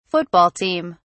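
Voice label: "football team", British English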